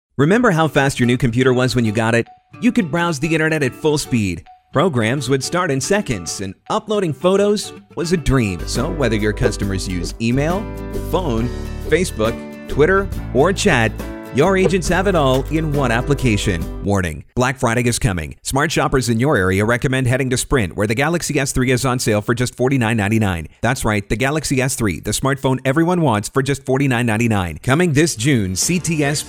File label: Commercial Voice Over